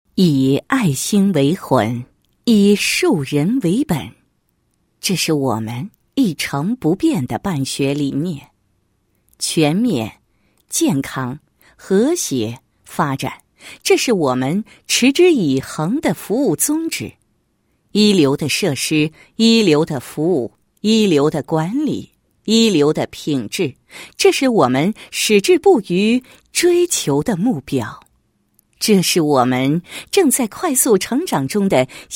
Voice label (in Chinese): 女, 配音案例; 学校; 新华未来星幼儿园